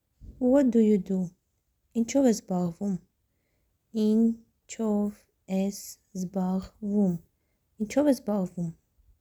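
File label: English "What do you do", Armenian "Inchov es zbaghvum"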